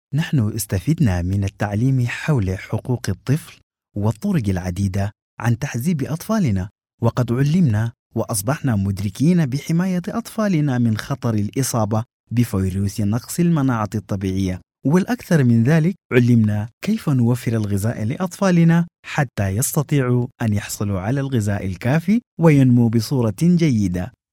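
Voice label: Sudanese Arabic, male, voice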